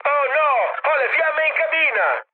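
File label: Italian on fire mood med, speech; War Thunder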